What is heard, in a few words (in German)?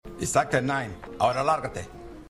Mexik Barkeeper; Revolution